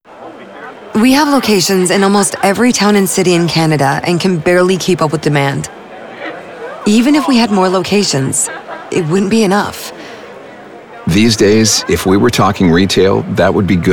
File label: RADIO; Food Bank; Not Retail; Pro Bono Group; ENG